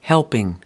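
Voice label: "helping", American English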